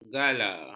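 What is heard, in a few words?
"gala", Malay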